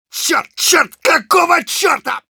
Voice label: Engineer negative vocalization, ru; "Чёрт, чёрт, какого чёрта?!"

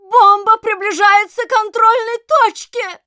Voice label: Announcer cart attacker warning, ru; Бомба приближается к контрольной точке!; Реплики Администратора

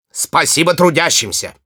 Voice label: Spy thanks for the teleporter, ru; «Спасибо трудящимся!»

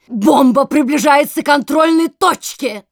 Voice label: Announcer cart attacker warning, ru

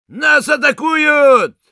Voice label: Sniper incoming, ru

